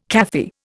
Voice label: "Kafir", Indian